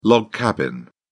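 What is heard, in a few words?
"log cabin", British English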